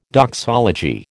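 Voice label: "doxology", American English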